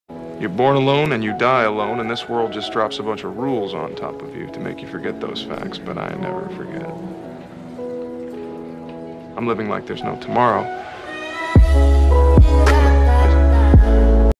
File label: dialogue ringtone